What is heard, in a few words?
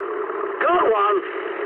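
English player killed enemy mood high; War Thunder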